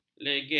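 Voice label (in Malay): "legeh", Malay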